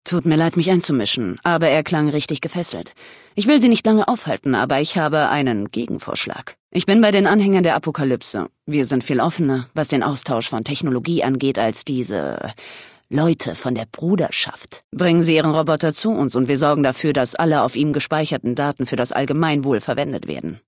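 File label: Female adult dialogue radio conversation; Kategorie:Fallout: New Vegas: Audiodialoge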